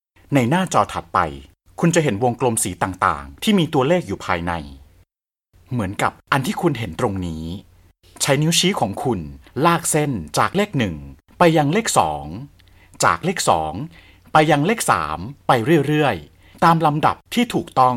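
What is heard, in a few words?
Thai, male, narration; voice-over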